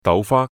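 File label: "豆花", Cantonese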